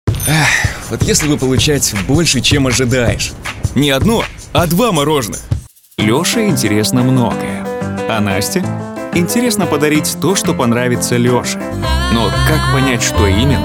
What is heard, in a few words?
RUS